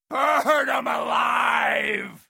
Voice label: battlecry; freak fortress 2; borderlands 2; jack; sniper

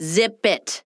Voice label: sniper jeers; Kinessa

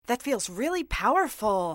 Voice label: powerful

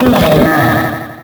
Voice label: pain; freak fortress 2; dry bowser

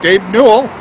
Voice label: hurt; tf; gabe

